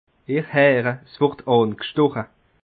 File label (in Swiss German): "herre", Bas Rhin; messieurs met en perce